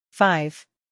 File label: "five", English